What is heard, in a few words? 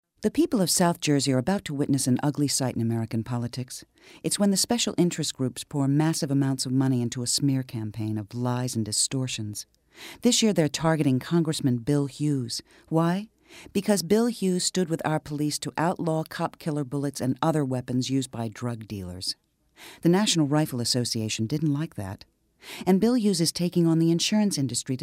pol, Political